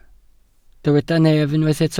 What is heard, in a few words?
"nef", Welsh